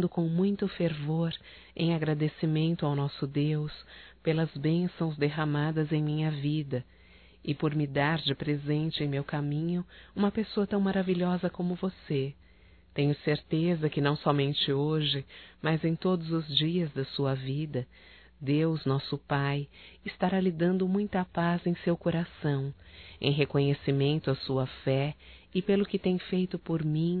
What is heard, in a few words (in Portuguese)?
Aniversário Sogro Evangélico Feminino; Telemensagens; Telemensagens 2017